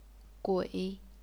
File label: "gui3", chinese pinyin